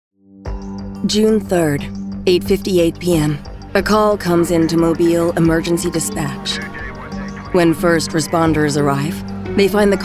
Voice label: Tv Narration